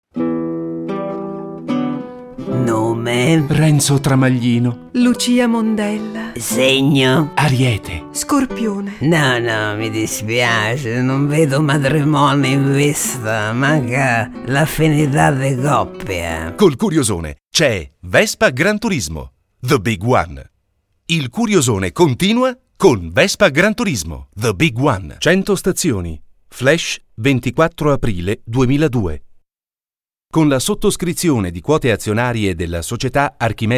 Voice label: italian

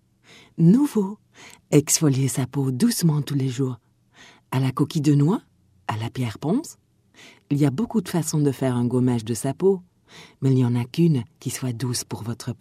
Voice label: french

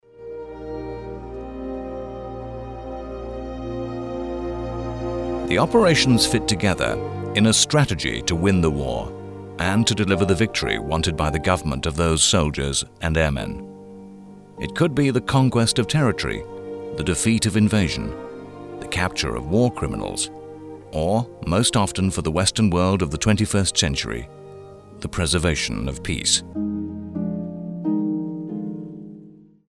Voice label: british

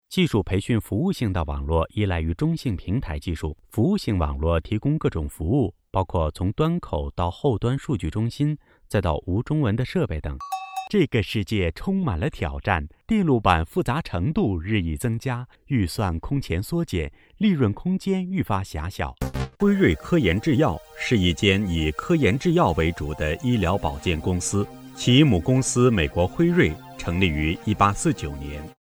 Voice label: chinese; foreign languages

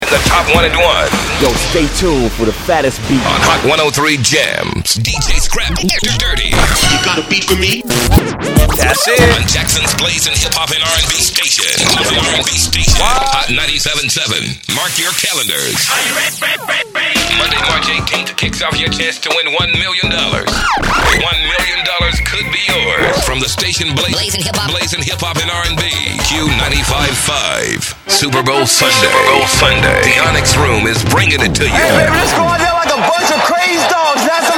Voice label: African American; foreign languages

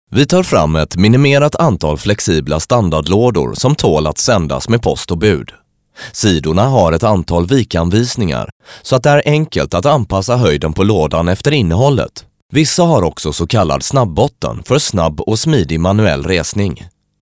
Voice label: Swedish; foreign languages

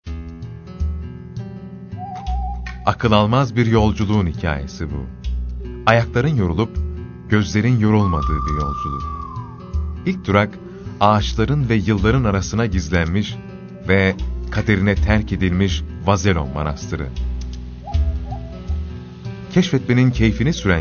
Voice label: turkish

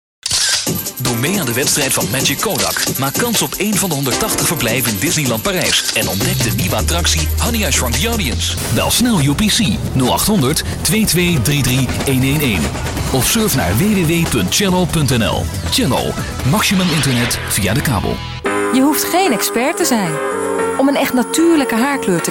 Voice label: dutch, Dutch voice overs, Male

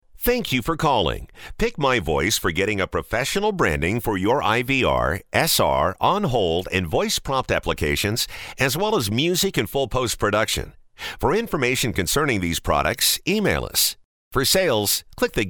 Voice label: Voice Sample, male voice talent

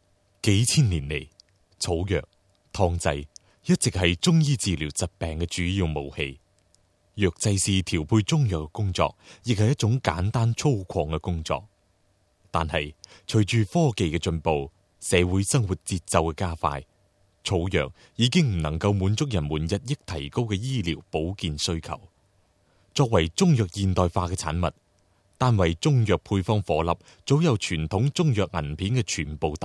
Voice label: Cantonese; foreign languages

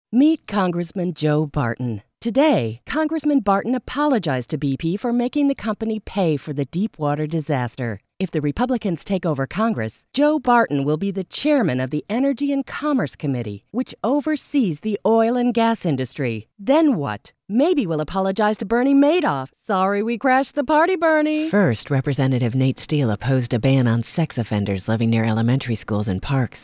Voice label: Political, female voice

